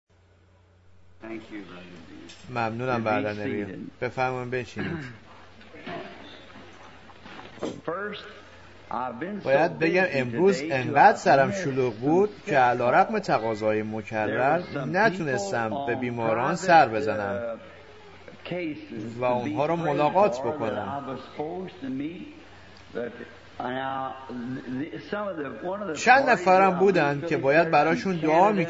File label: Farsi Voice Over; Why I Am Against Organized Religion